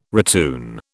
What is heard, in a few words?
"retune", British